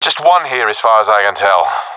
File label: sees one enemy, professional epic; vo; Counter Strike Model Pack; CS:GO